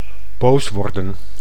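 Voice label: "boos worden", Dutch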